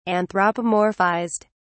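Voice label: "anthropomorphized", English, British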